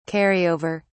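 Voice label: "carry over", English, British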